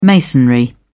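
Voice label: "masonry", British English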